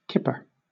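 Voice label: "kipper", British English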